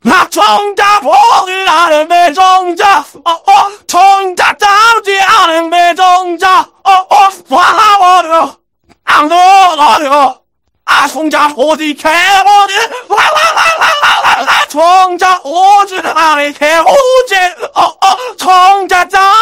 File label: scout cheers